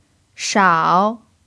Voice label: "shao3", Chinese

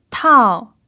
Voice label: "tao4", chinese

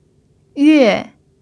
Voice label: "yue4", chinese

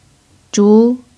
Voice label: "zhu2", Chinese

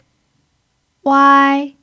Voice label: "wai1", chinese

